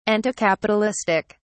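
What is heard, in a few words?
"anticapitalistic", English, British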